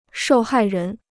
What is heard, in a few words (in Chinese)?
"受害人", Chinese